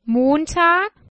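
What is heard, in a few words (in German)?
"Montag", German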